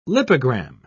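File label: "lipogram", British English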